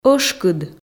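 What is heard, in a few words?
"ӧшкыд", Komi, female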